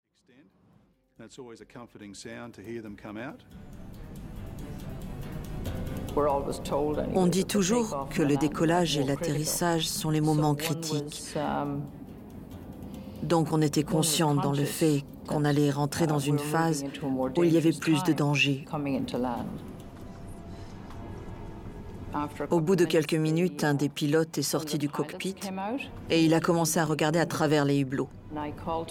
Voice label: Voice Over